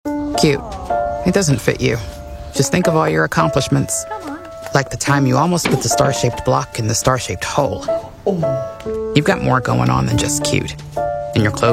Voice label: Dry Wry Funny Flat, african american voice; Carter's

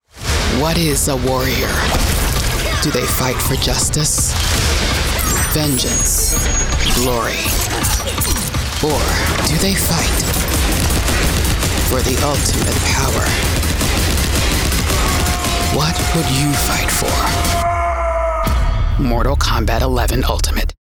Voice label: Raw Intense Daring Game Trailer; Mortal Kombat 11 Ultimate

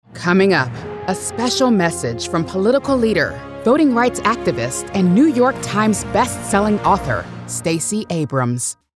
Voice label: Voice of God Commanding Formal Proud; Stacy Abrams

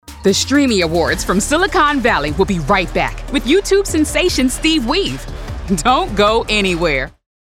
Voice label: VOG Playful Youthful Fun, voice of god; Streamy Awards

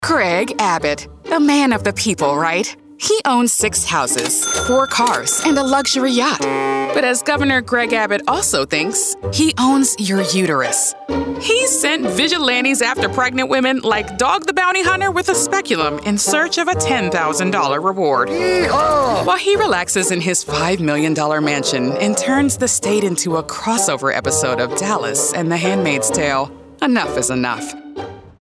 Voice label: Political Snarky Funny Attack Negative Mocking; Anti Greg Abott

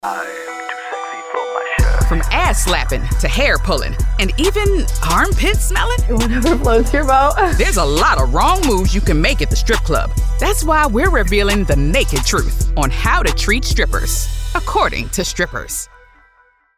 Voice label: Edgy Raunchy Raw TV Narration; Strippers

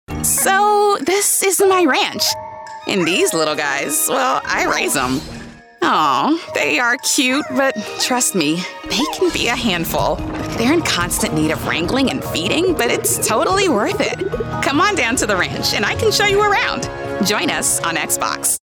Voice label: Cute Nerdy Character Game Trailer; Slime Rancher

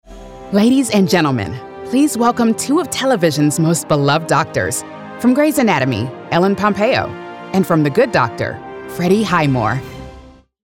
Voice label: Elegant Formal; Ellen Pompeo and Freddie Highmore

